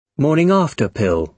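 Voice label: "morning-after pill", British English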